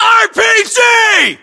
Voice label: threat rpg, US

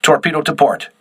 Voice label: voice message torpedo left, crew ships english

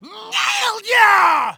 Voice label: taunt nailed ya high

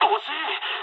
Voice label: bomb failure mood high, speech jp; War Thunder

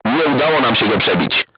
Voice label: voice message armor not pierce, crew tanks polish

